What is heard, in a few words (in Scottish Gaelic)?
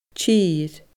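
"tir", Scottish Gaelic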